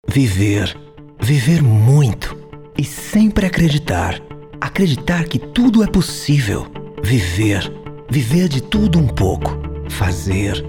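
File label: Portuguese Brazilian Commercial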